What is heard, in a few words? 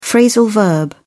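"phrasal verb", British English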